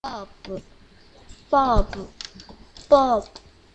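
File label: "bulb", spoken word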